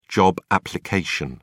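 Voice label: "job application", British English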